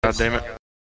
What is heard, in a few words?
god damnit duck